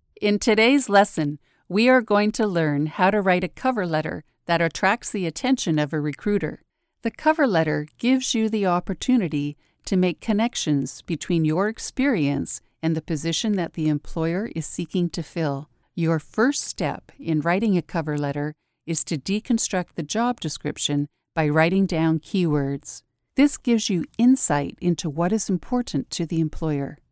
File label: female canadian voice over narrative